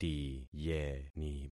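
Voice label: "tiyeni", concatenated syllables; Affixing experiment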